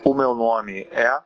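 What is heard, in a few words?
my name is, Brazilian Portuguese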